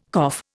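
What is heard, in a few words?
"cough", Indian English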